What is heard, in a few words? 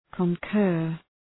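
"concur", English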